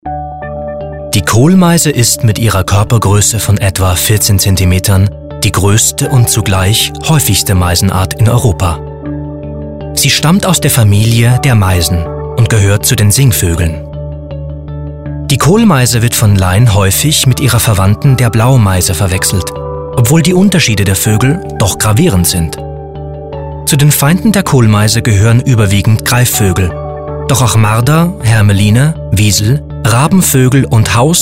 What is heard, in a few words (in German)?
Doku